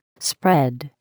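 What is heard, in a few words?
"spread", English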